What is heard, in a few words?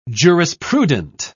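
"jurisprudent", British English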